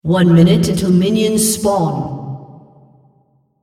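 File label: female On One Minute Minions Spawn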